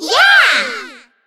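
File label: tower win, English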